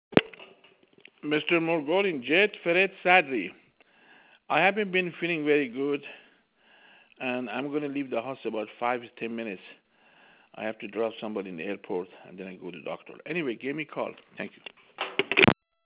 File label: Voice message